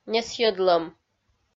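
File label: "несйӧдлӧм", Komi-Zyrian, female; kpv audio dict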